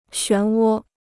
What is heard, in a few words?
"旋涡", Chinese